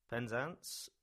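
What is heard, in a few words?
English; Penzance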